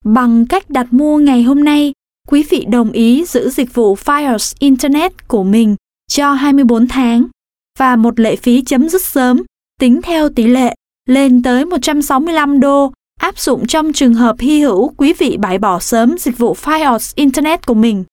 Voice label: Vietnamese, Female